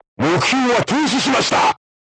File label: voice message target lock, crew tanks japanese